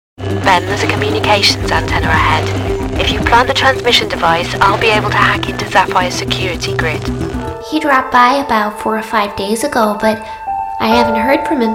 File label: english british video games